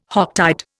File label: "Hocktide", Indian